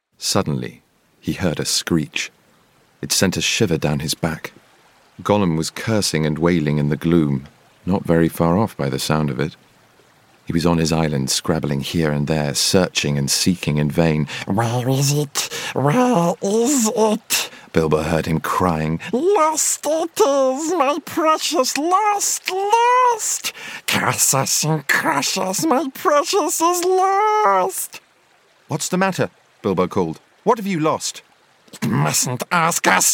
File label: Narration